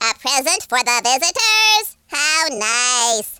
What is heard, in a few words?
uhoh, speech, alien; Worms3D